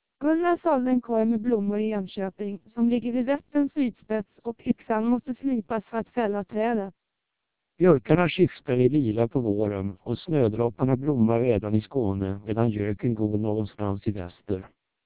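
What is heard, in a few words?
Swedish speech, TWELP 700 bps vocoder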